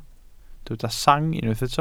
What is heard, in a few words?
"sang", Welsh